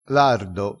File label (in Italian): "lardo", crespinese, vocabolario dialettale